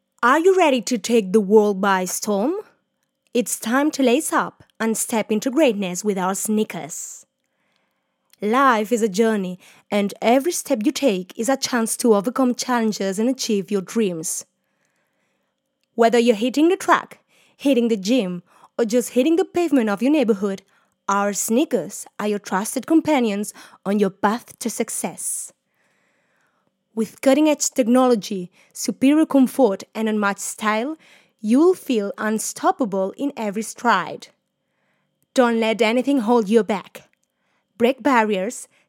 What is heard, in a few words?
Sports campaign English